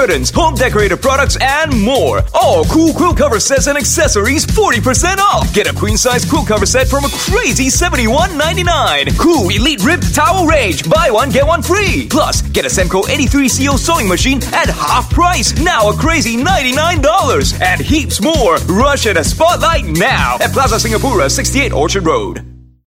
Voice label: Asian English Sale; Spotlight

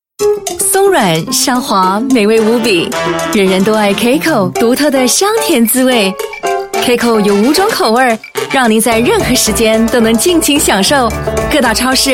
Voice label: Mandarin

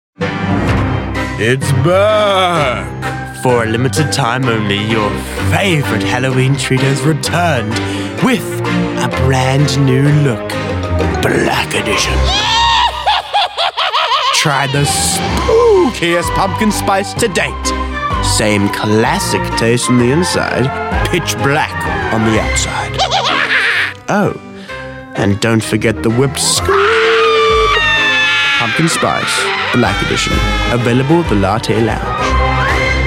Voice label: NZ English

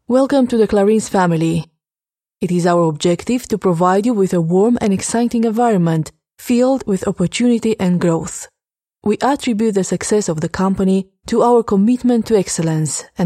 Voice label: Romanian English Corporate Presentation, Female

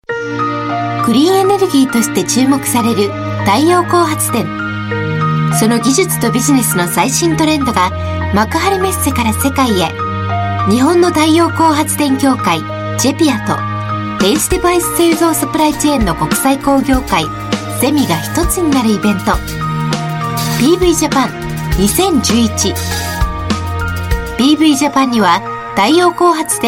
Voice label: Japanese TVC Female

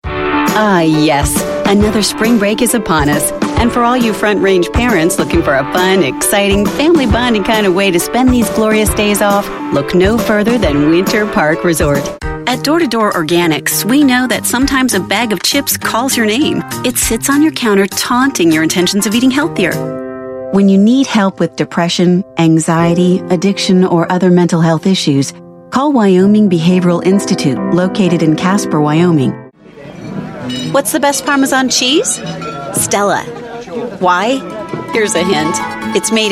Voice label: US English Commercial, Female

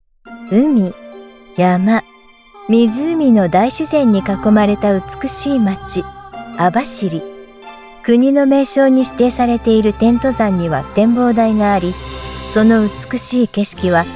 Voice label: Japanese Voice Sample; Abashiri

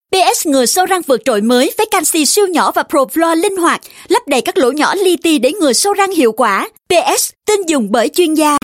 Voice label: South Female Vietnamese

